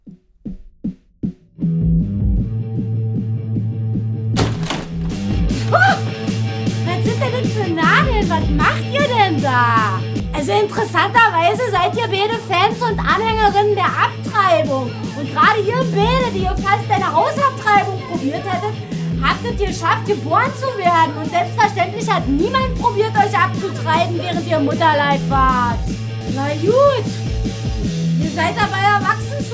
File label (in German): Hörspiel; Berliner Muter